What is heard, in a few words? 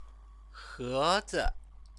"hezi", Chinese